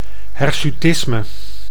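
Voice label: "hersutisme", Dutch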